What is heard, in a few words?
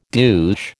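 "douche", American English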